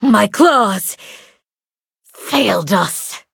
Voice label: defeat, en; cookie run